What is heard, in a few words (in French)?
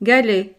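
"galet", French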